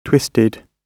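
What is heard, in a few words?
"twisted", British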